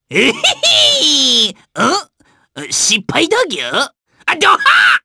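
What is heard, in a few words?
vox trs Japanese; Lakrak; kingsraid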